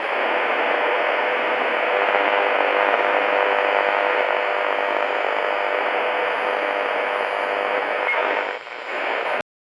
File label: muffled radio chatter; communication